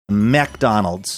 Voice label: saying funny manner; mcdonalds

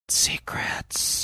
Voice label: whispering; secrets

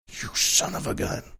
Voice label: whispering you son of a gun

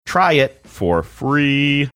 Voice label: saying try it for free sing songy

